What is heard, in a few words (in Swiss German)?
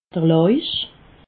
"loich", alsace